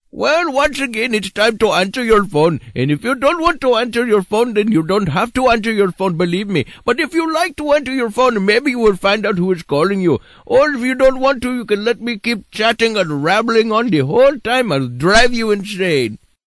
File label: chatty indian